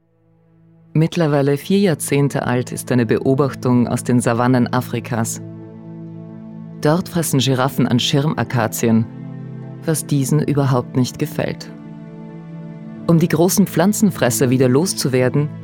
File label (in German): Doku